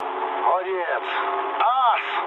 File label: trick, speech ru